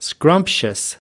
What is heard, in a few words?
"scrumptious", us